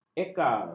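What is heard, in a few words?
"ekar", Malay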